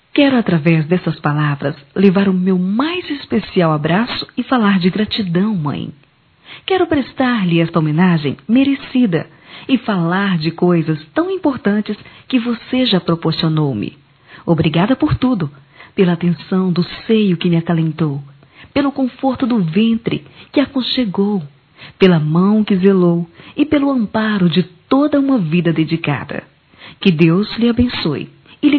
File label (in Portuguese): filha para mãe, telemensagens, Dia das Mães; Telemensagens 2017